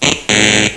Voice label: uh oh